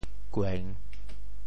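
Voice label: "kueng3", Teochew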